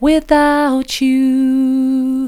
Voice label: without you soft; vocal